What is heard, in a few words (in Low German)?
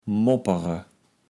"moppere", kaevels platt